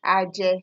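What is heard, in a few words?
"aje", Nigerian Pidgin, female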